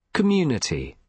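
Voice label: "community", British English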